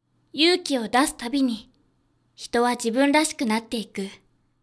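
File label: female; 勇気を出すたびに、人は自分らしくなっていく。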